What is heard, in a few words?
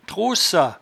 "trossa", patois jura